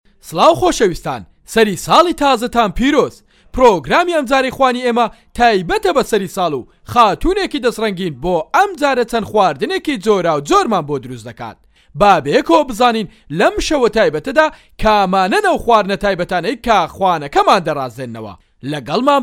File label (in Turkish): Kurtce Erkek Soranice, seslendirme; erkek sesler